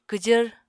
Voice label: "kwjvr", Apatani